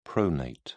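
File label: "pronate", British English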